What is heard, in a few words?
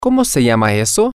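what Is That Called, Spanish, male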